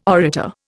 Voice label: "orator", Indian

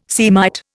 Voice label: "Semite", Indian

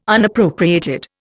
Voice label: "Unappropriated", Indian

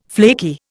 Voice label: "flaky", Indian

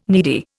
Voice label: "needy", Indian

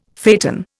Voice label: "phaeton", Indian; Dictionary

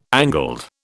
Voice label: "angled", British

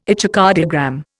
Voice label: "echocardiogram", Indian

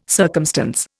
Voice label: "circumstance", Indian

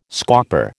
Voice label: "scauper", American

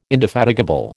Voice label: "indefatigable", American

